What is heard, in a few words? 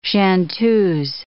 "chanteuse", English